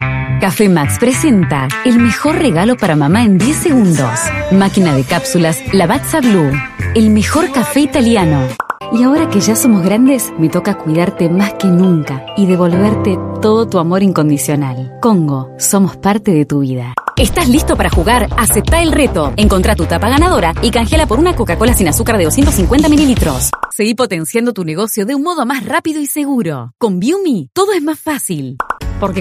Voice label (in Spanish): rioplatense